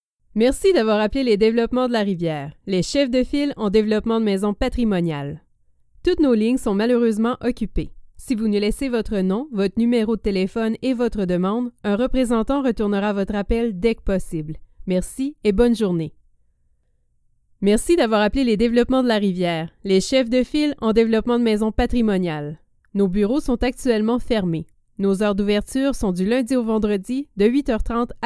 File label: French Canadian IVR